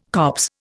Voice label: "copse", Indian

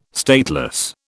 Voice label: "stateless", British

